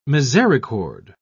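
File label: "misericorde", British English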